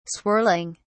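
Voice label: "swirling", British English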